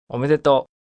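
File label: Congrats Japanese M, male, Japanese 'Congratulations'; (human voice speaking); Talking Sound Effects; Sound Effects:Voice; VoiceBox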